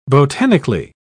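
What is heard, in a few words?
"botanically", British English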